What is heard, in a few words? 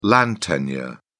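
"land tenure", British English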